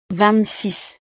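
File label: "vingt six", French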